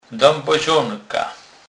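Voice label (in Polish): "debozonka", Kurpie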